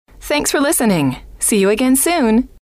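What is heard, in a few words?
thanks For Listening See You Again, female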